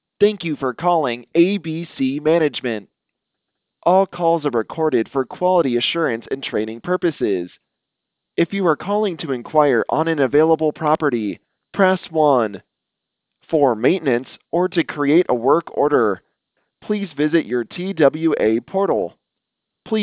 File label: Sample English